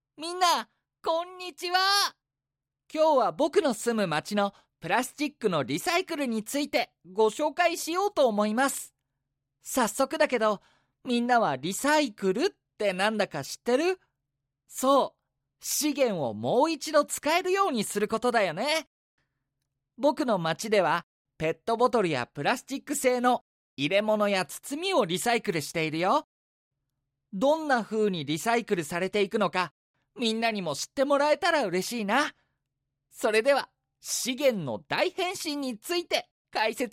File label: male; かわいいキャラクター